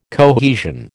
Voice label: "cohesion", American